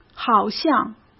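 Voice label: "好像", Mandarin Chinese; chinese-flashcards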